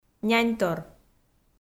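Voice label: "няньтор", Komi, female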